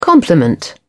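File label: "compliment", British English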